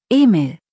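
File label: "Emil", German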